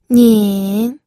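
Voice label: "nin", third tone, pinyin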